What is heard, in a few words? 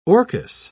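"orchis", English, British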